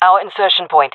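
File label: our spawn, jungle female; vo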